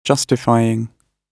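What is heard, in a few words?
"justifying", British English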